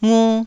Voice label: "ngu1", taiwanese syllables

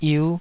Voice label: "yiu5", taiwanese

